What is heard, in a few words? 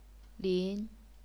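"lin", second tone, chinese pinyin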